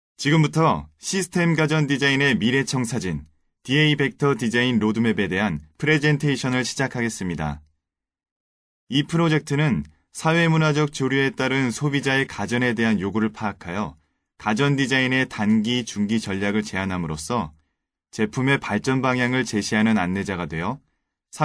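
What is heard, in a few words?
Korean, male, various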